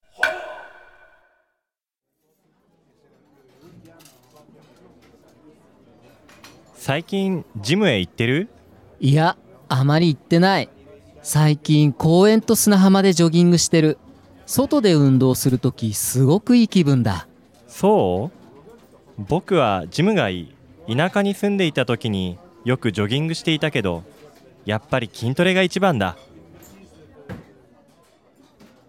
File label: dialog; JapanesePod101; Beginner Season 1